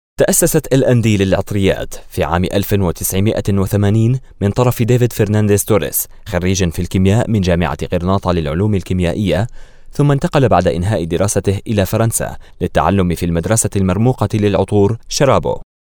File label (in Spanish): Masculino